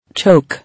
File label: "Choke", English